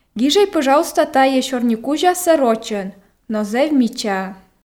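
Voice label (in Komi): гижӧй пӧжалуйста тайӧ сёрникузясӧ рочӧн но зэв мичаа, Komi, female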